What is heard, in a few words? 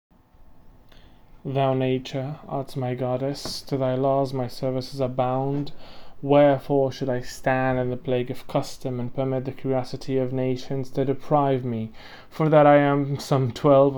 English monologue